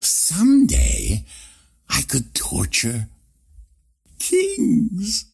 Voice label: monologue; ringmaster

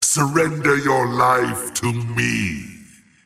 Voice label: lasthit pain; razor

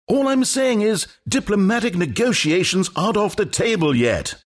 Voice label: announcer count battle; dlc stanleyparable

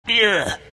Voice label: venm pain; dota; venomancer

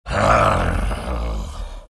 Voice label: anger; dota; beastmaster

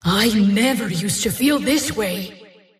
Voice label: teleport pain; dota; dawnbreaker; valora

